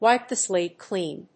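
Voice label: "wipe the slate clean", English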